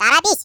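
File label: "Hurry", Russian; Default ru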